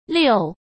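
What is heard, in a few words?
"遛", Mandarin Chinese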